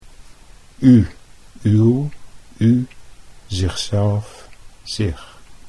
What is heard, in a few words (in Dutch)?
"u uw", Dutch